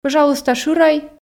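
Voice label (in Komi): пӧжалуйста шырӧй, Komi, female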